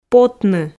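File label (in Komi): "потны" Komi, female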